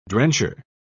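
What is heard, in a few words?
"drencher", British English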